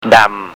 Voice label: "damm", Thai